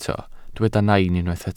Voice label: "nain", Welsh

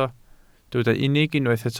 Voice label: "unig", welsh

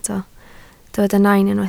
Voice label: "nain", Welsh

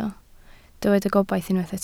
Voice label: "gobaith", Welsh

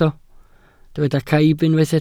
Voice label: "caib", Welsh

